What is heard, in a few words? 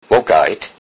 how are you, lowsaxon; Wo geiht't?